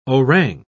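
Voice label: "orang", British English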